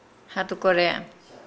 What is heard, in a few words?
"sharpening tool", Nukuoro